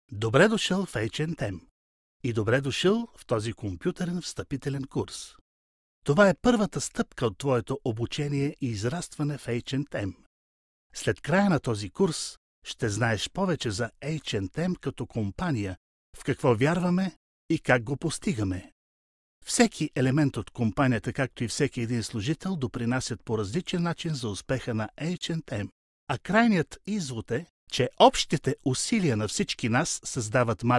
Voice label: bulgarian m e-learning; voice demos

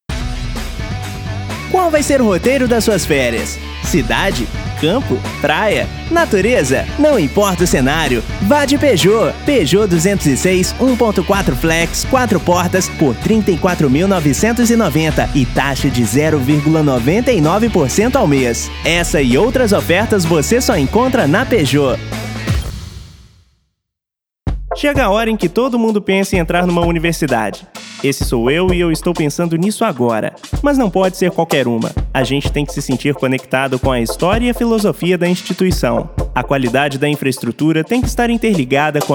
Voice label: brazilian m commercial, portuguese bra voice; voice demos

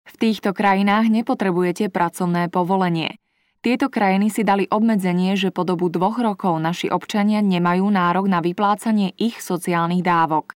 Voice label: slovak f narration; voice demos

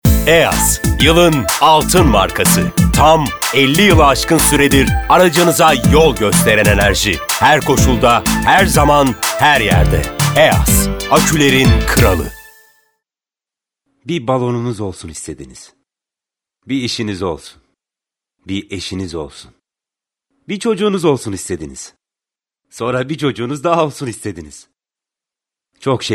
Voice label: turkish m commercial, Turkish voice; voice demos

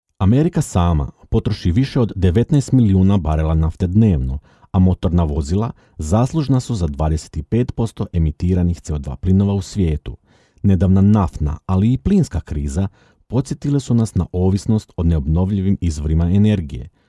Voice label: croatian m narration, voice demos

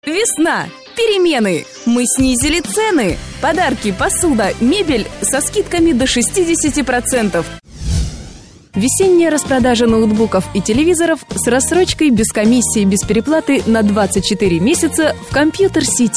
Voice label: ukrainian f commercial

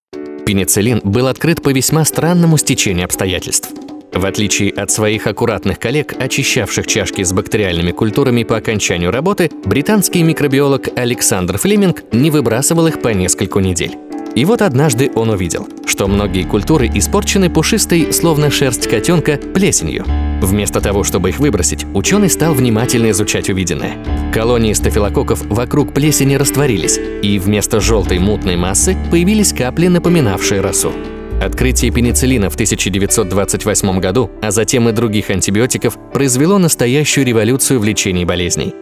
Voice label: russian m commercial

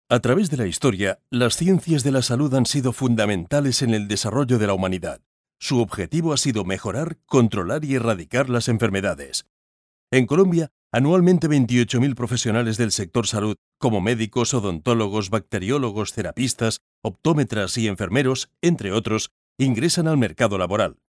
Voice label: spanish m narration

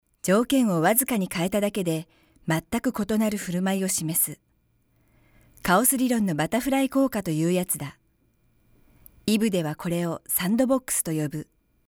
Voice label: japanese f commercial; voice demos

